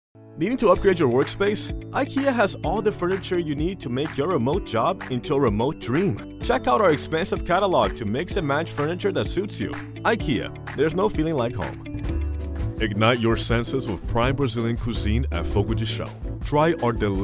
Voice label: Commercial, en-GB